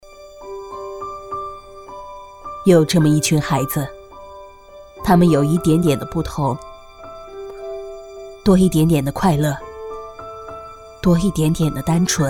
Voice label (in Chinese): 女 旁白 情感公益, 国语女声配音; 特殊儿童